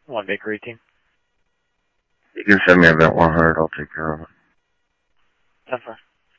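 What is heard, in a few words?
Mesa Police Department, scanner audio trunk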